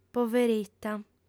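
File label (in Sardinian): "poverita", female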